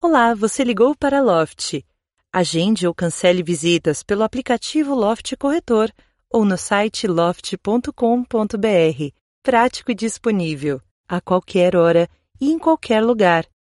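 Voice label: IVR, Brazilian Portuguese; voice demos; GoLocalise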